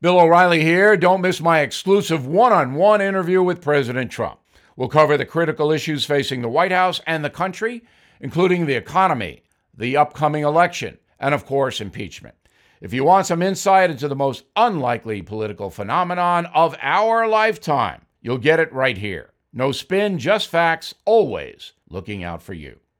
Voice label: Promo; Bill O’Reilly’s One-Hour Interview With President Donald Trump; Bill O’Reilly 1-Hour Special